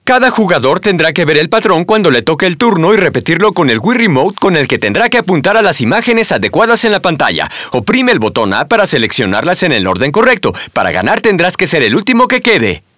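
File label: copycat voice, Spanish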